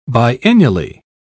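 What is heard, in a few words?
"biennially", English, British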